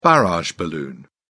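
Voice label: "barrage balloon", British English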